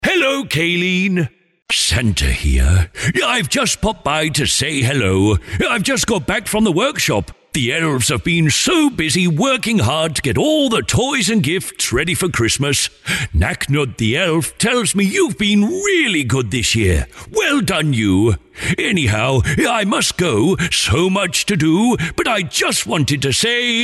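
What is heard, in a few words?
Personalised Santa Message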